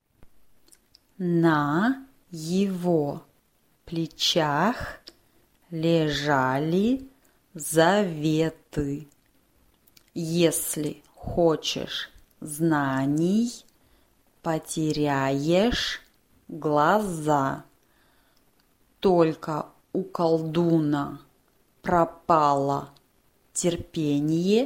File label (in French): valse couplet parlé